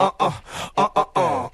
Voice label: "uhuh uhuhuhhhh", Voice; ooh ahh; uh um